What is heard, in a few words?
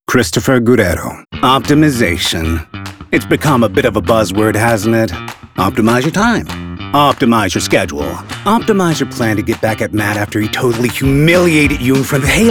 Commercial